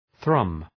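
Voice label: "thrum", English; {ɵrʌm}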